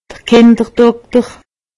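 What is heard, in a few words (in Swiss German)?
"kinderdokter", Haut Rhin; medecine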